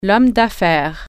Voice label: "business person", French